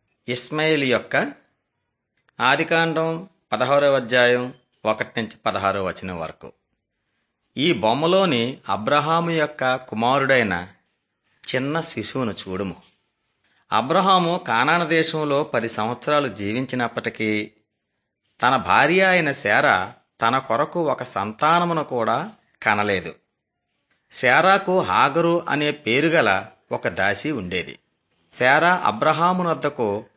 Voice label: telugu bangladesh; beginning with god

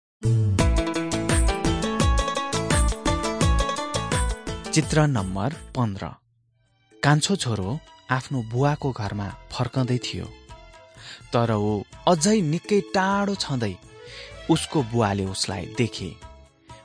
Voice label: jesus lord and saviour nepali

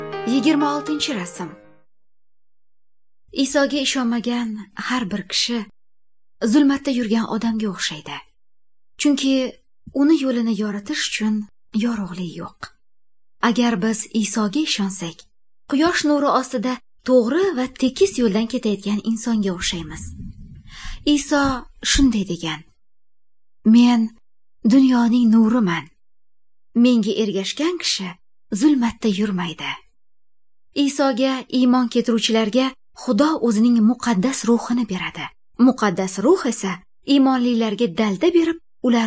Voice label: good news female uzbek northern

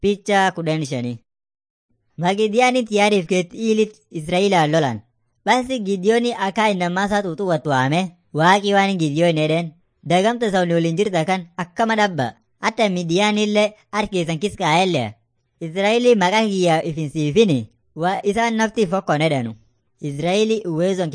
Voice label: afan munyoyaya

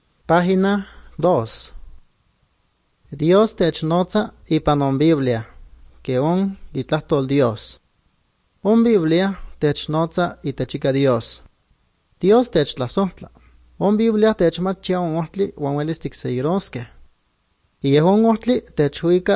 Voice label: good news, nahuatl guerrero chilapa

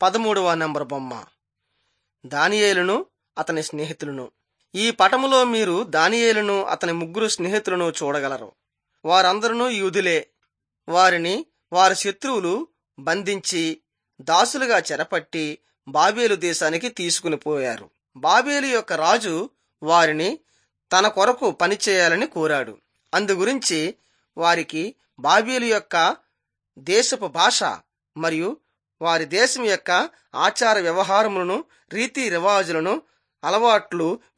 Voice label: telugu bangladesh; on trial for god

